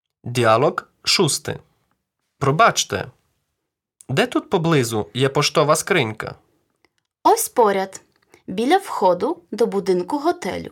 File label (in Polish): Lekcja Dialog